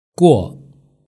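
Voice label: "guo", Mandarin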